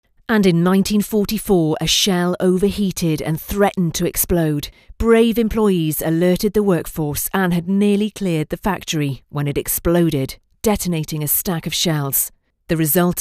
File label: History Narration